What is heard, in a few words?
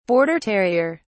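"border terrier", British English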